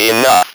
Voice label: "enough", Speak & Spell

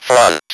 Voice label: "front", Speak & Spell

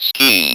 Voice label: "SKI", Speak & Spell

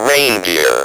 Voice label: "reindeer", Speak & Spell

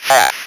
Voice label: "half", Speak & Spell

